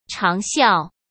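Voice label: "长效", Mandarin Chinese; hsk; chinese flashcards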